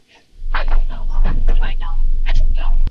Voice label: Voice Acting, es, F0

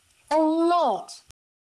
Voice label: Voice Acting es

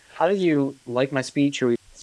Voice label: Voice Acting, es, M

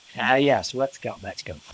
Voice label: Voice Acting, es

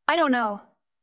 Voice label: VoiceActing es F

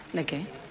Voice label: Voice Acting es F

